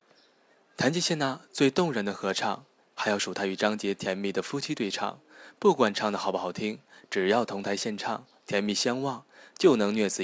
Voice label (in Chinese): 娱乐播报